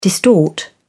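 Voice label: "distort", British English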